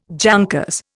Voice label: "jankers", Indian